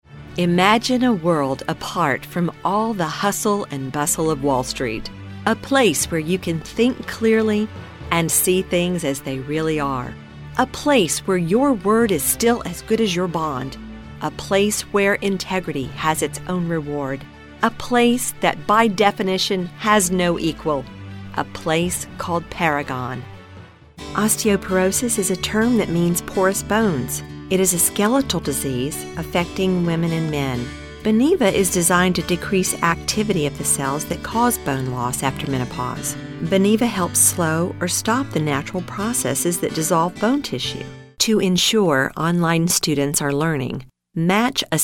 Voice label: Financial Narration